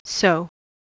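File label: "sow", English, verb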